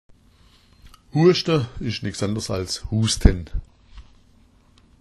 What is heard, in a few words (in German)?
"huaschda", schwaebisch